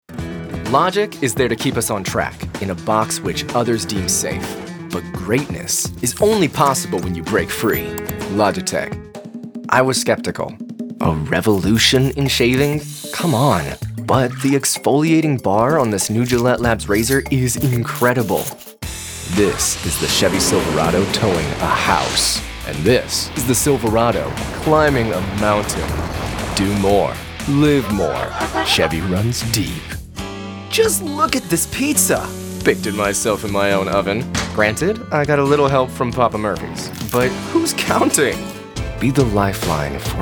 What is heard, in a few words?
Professional Young Male Voice Over Talent